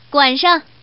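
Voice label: Woman, "beat you"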